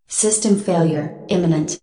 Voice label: Narrator Voice system Failure Imminent, Female AI; Narrator Voice Pack 1